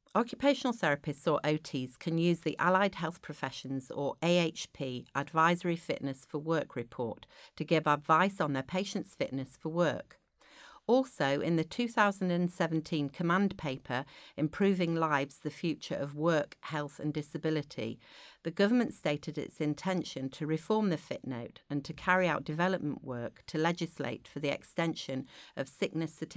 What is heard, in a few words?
narration; AHP advisory fitness for work report; professional development